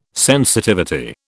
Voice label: "sensitivity", British

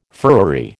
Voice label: "furriery", American

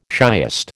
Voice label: "shiest", American

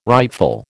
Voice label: "rightful", American

